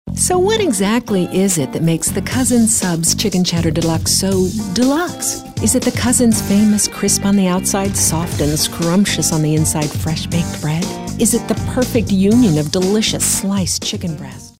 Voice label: sexy